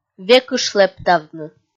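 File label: "векыш лэптавны", Komi, female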